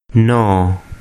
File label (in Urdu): "no", Urdu